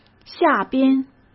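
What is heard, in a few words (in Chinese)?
"下边", Mandarin Chinese; hsk; chinese-flashcards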